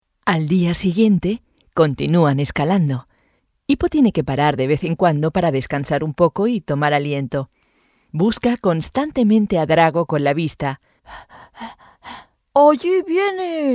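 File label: Spanish childrens book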